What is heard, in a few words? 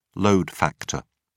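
"load factor", British English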